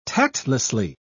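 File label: "tactlessly", British English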